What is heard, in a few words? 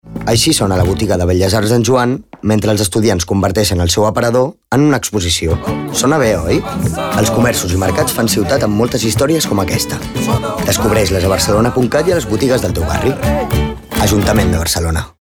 male catalan shop comercial; ideasonora